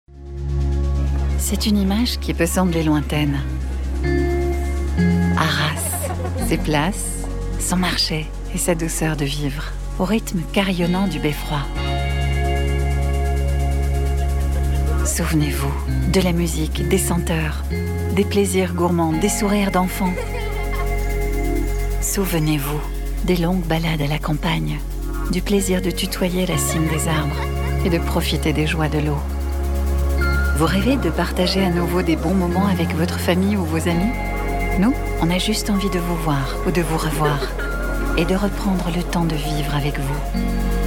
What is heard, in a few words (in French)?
film video institutionnel; arras